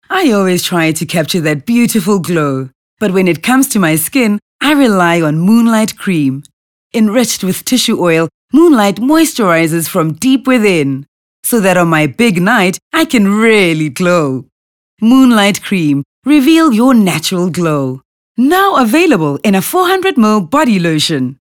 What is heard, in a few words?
English Conversational